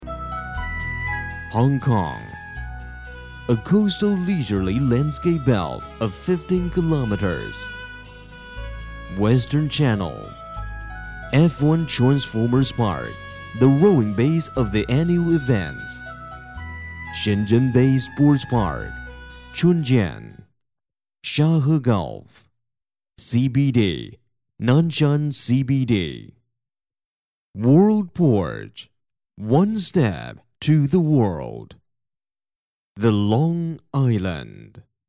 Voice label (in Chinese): 英男 广告 地产; 长岛地产